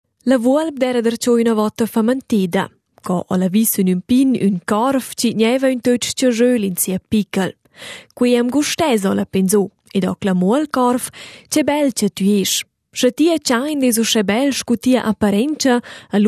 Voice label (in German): Idiom PUTER; e bel cha tü est! Scha tieu chaunt es uschè bel scu tia apparentscha, alura est tü il pü bel utschè da tuots»; rätoromanischen Sprache